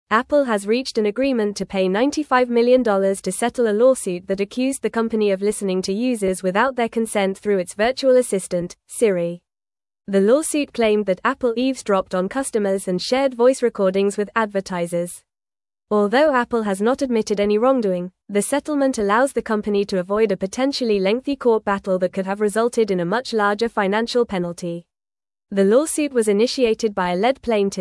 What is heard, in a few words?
English Advanced FAST Reading; English Newsroom; Apple Settles Siri Eavesdropping Lawsuit for 95 Million